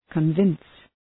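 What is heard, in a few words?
"convince", English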